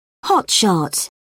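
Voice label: "hotshot", British English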